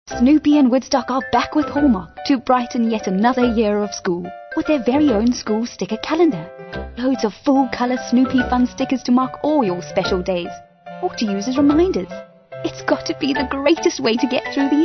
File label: Natural